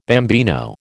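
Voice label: "bambino", American English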